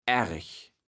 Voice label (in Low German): "ärg", kaevels platt